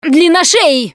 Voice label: warn spitter, russian, Survivor Voice Producer